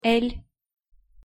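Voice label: "л", Russian pronunciation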